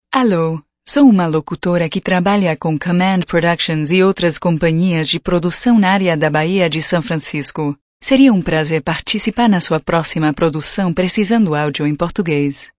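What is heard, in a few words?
Portuguese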